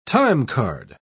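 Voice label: "timecard", British English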